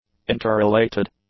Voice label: "interrelated", english; adjectives